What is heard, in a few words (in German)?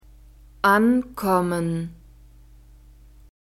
"ankommen", German